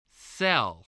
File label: "cell", spelling